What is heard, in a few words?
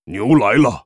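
pissed, Chinese; Tauren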